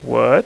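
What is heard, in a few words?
"what", male; Web Clip Empire 150,000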